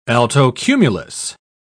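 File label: "altocumulus", British English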